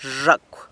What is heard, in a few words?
"zhacw" cockroach, San Lucas Quiaviní